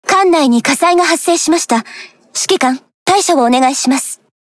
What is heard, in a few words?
Speech Alarms Fire Alarm; Azur Lane